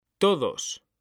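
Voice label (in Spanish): "todos", Spanish; mekanta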